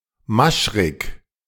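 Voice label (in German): "Maschrik", German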